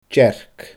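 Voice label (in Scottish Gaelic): "dearc", Scottish Gaelic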